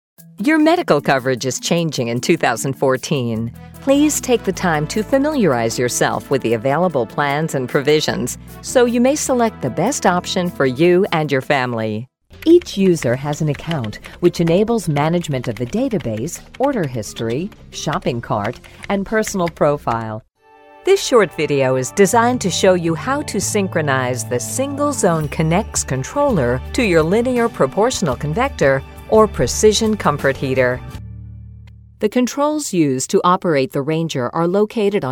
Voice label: American English eLearning

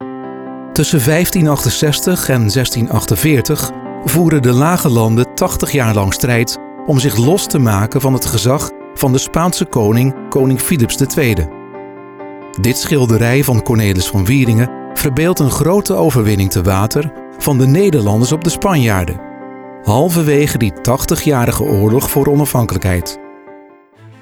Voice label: Narrative & Corporate

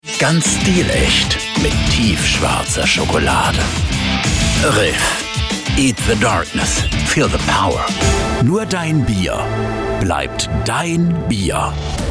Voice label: Commercial German

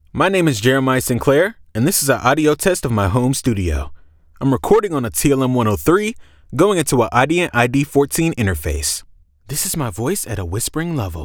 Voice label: Home Studio Sample

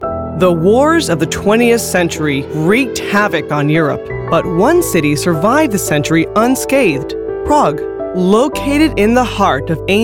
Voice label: Narr

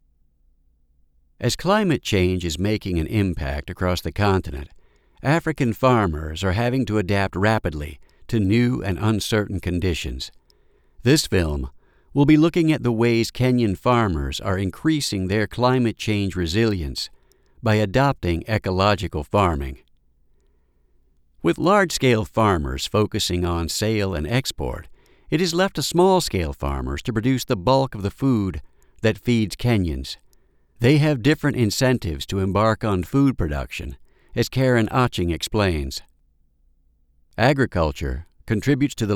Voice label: Nature Doc

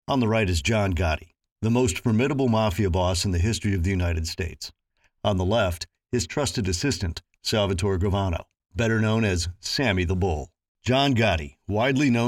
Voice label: Promo

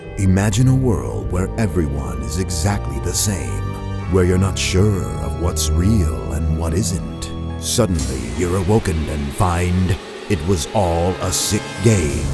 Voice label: trailer promo

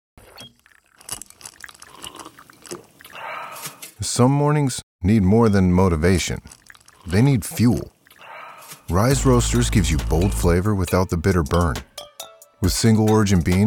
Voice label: coffee commercial; Rise Roasters Coffee

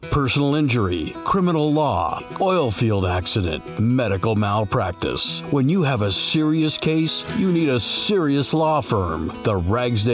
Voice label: Voice Over

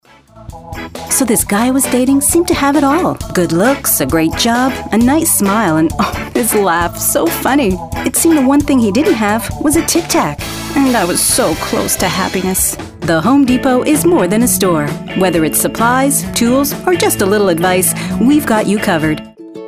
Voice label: Commercial English

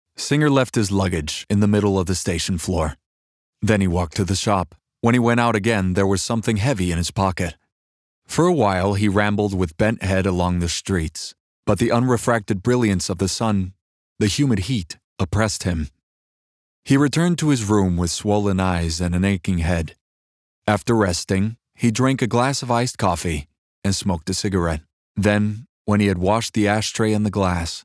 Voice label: Audiobook

